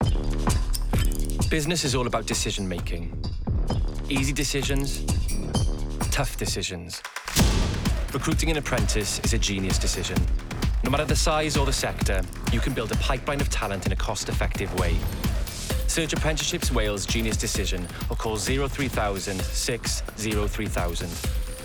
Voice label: English RADIO MIX